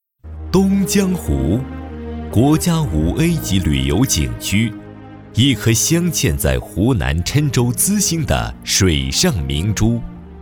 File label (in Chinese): 男国 专题 企业 水上旅运 浑厚